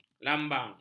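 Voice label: "lambang", Malay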